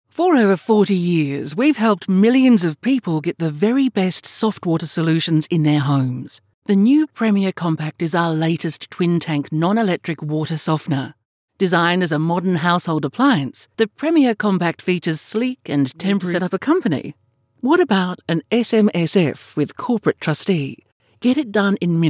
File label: Natural